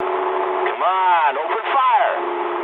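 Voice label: English attack position mood low; War Thunder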